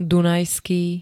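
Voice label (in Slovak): "dunajský", Slovak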